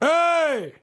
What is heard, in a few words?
Spanish Soldier Attack; AoE2